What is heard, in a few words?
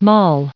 mall, en-us